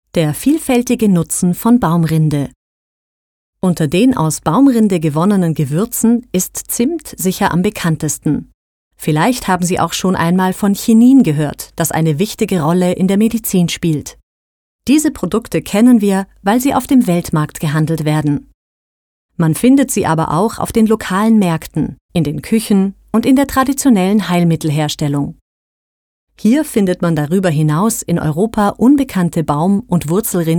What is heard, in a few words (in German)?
Audio guide Regenwaldmuseum; Leipzig